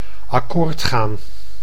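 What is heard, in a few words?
"akkoord gaan", Dutch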